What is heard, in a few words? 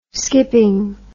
"skipping", words